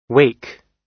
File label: "wake", English